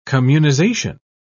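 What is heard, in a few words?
"communization", British English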